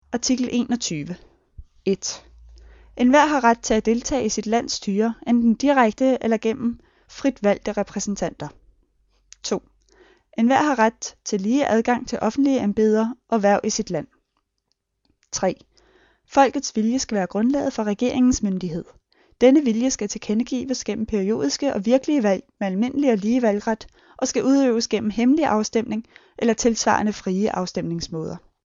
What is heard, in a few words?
Danish